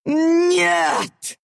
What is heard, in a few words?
Pain death ru